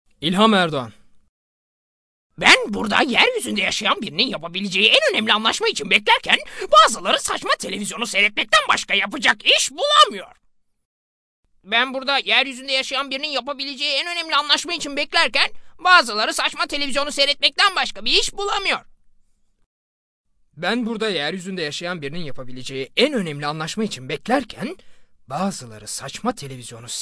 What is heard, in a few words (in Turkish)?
animasyon